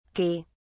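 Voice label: "okey", British English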